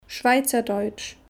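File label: "Schweizerdeutsch", German; Kapitel 2; Alphabet erste Schritte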